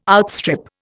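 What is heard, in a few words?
"outstrip", Indian English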